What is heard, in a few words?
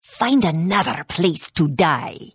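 Hello, Khajiit female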